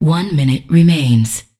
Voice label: Voices Announcer Status; unreal development kit